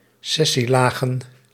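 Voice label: "sessielagen", Dutch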